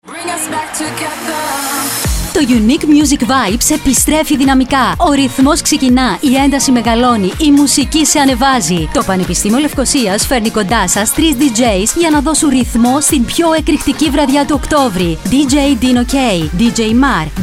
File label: Radio Spot; UNIC MUSIC VIBES 2018